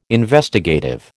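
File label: "Investigative", American English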